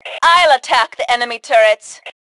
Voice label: Self Attack Turrets, Female; T2 Female 01